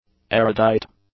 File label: "erudite", English; adjectives